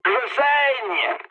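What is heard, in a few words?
French damaged pilot mood med; War Thunder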